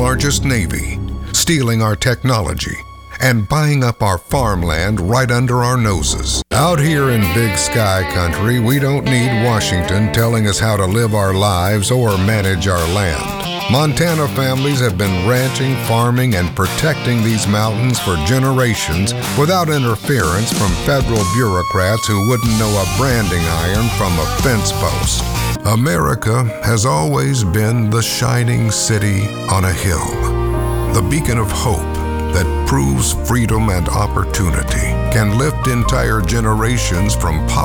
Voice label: english american political ads